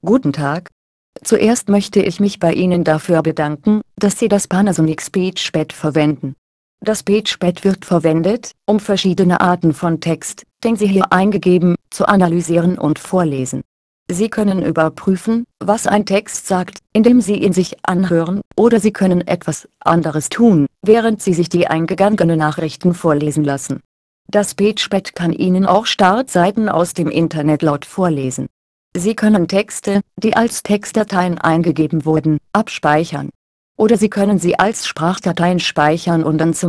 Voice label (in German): German